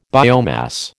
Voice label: "biomass", American

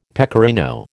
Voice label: "pecorino", American

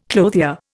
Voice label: "clothier", Indian

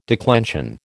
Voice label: "declension", American

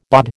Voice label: "BOD", American

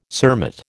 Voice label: "cermet", American

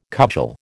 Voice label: "cudgel", American

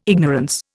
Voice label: "ignorance", Indian

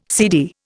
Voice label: "seedy", Indian

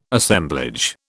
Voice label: "Assemblage", British

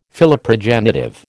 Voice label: "Philoprogenitive", American

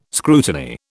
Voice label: "scrutiny", British

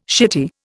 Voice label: "shitty", Indian

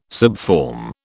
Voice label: "subform", British

